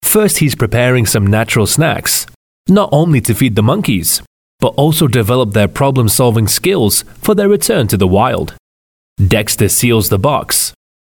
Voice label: english british documentaries